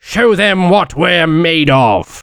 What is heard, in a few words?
battlecry